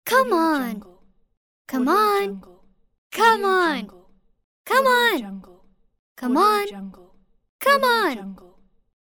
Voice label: girl saying come on